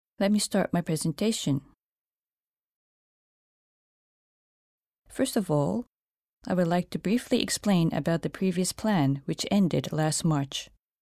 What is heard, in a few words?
english